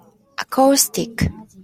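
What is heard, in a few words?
"acoustic", JPN, female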